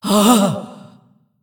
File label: Shivarra Demon Emote Death